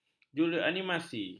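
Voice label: "juruaniminasi", Malay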